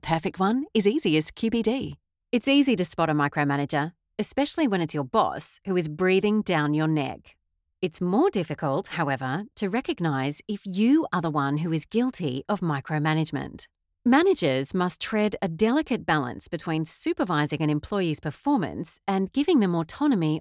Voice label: Podcast